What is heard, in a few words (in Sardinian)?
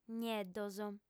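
"nieddos", female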